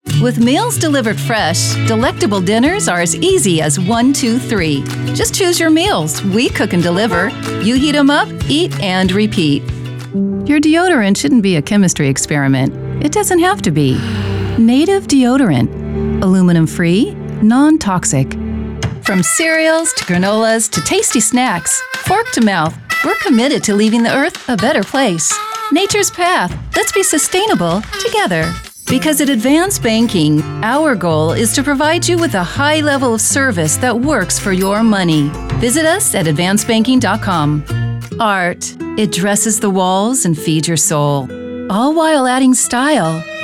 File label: Commercial